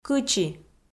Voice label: "кычи" Komi

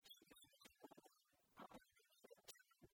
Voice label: чикыш мича бордйӧн а морт велӧдчӧмӧн, kv_proverbs

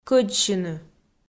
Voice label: "кӧдзсьыны" Komi

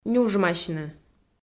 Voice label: "нюжмасьны", Komi

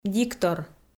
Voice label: "диктор", Komi

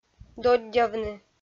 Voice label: "доддявны", Komi

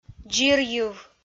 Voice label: "дзирйыв", Komi

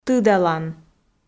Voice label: "тыдалан", Komi

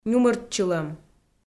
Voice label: "нюмыртчылӧм", Komi

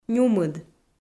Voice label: "нюмыд", Komi

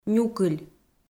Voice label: "нюкыль", Komi

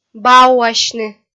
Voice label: "бауасьны" kpv f

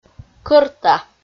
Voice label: "кӧрта" Komi

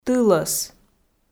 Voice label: "тылӧс", Komi

